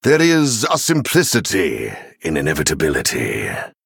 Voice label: Male Venthyr Start